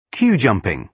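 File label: "queue-jumping", British English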